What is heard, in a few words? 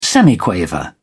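"semiquaver", English, British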